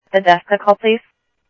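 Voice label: Sheriff Lake Patrol, scanner audio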